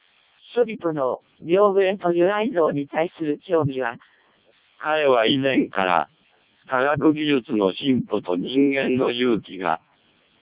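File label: Japanese speech, CODEC2 vocoder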